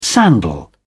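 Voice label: "sandal", British English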